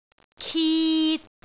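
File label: "kit3", Cantonese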